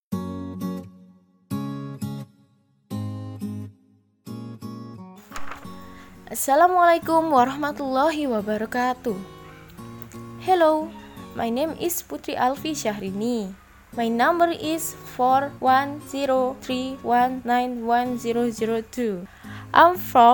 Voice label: Voice Over English